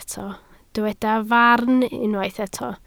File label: "farn", Welsh